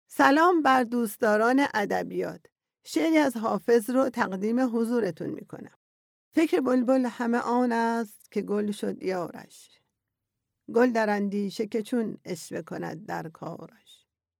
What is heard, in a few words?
female farsi voice over narrative